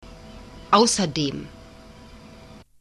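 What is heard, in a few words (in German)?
"ausserdem", German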